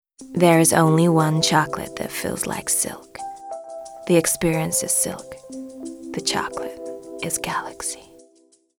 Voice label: Sexy Ad; Galaxy